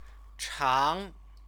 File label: "chang", Chinese; 长; long; adjective / stative verb; Chinese lessons